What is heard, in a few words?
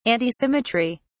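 "antisymmetry", British English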